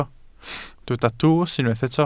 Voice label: "drws", Welsh